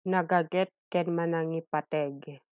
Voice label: Ilocano